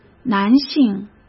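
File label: "男性", Mandarin Chinese; chinese flashcards; hsk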